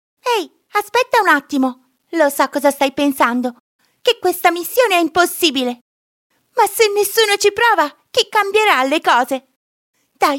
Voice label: italian character